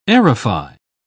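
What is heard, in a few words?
"aerify", British English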